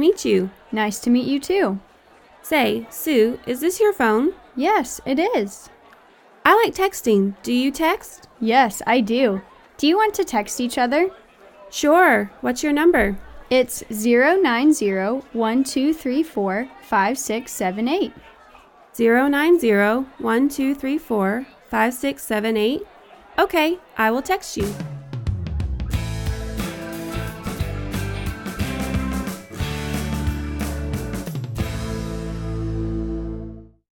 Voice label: Conversation, English